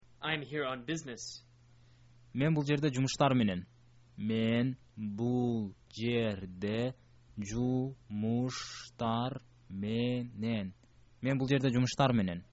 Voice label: i am here on business, kyrgyz

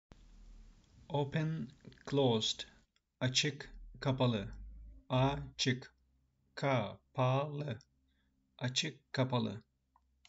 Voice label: open closed, Turkish talking phrasebook

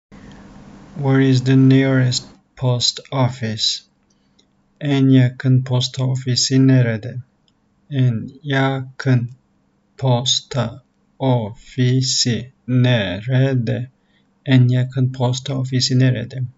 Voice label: where is the nearest post office, turkish; the talking turkish phrasebook

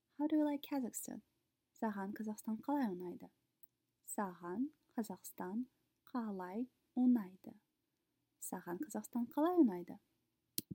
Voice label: How do you like Kazakhstan, kazakh

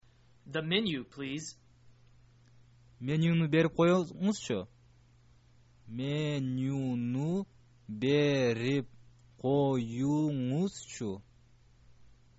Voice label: menu pls, kyrgyz

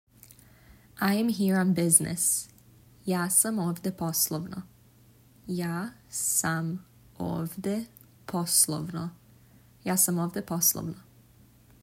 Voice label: I am here on business, serbian

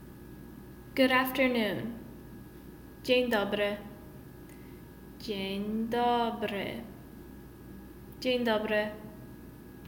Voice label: Good afternoon, Polish

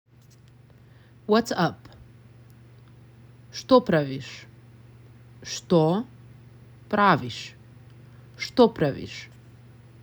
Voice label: Whats Up, macedonian; the talking macedonian phrasebook

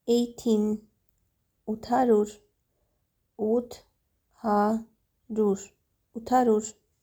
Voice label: "800 utharyur", Armenian

